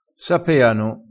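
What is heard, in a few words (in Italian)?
"sapeano", vocabolario dialettale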